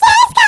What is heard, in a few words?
DISCO, SHOUTS, Funky Vocals; USB Soundscan vol.18; AKAI